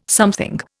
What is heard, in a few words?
"something", Indian